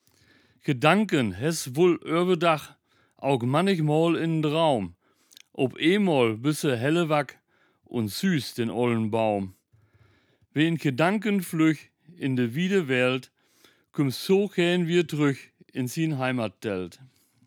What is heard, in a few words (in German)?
Platt; Buckenkamp; Plattdeutscher Weg; Nottuln